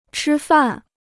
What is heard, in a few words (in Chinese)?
"吃饭", Chinese